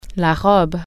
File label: dress, french